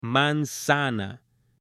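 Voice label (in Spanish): "manzana" Spanish, female; ALIMENTOS; AppLenguaje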